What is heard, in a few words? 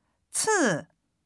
"次", Chinese